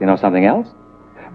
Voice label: "know something else", male